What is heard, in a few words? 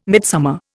"midsummer", Indian